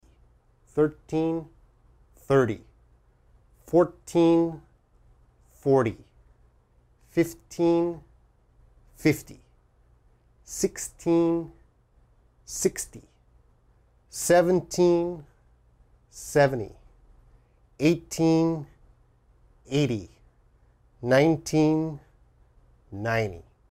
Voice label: English numbers difficult pronunciate